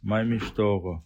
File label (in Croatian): romski; POP UP Zagreb